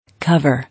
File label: "Cover" English